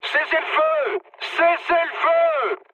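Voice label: player friendly fire, mood low, French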